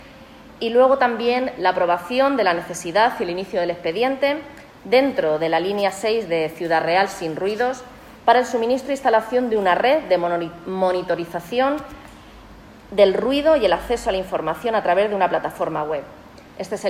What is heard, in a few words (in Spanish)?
SIN RUIDO